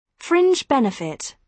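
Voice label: "fringe benefit", British English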